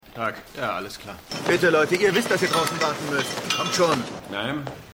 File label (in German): Polizeifotograf Polizist Mann; Silent Witness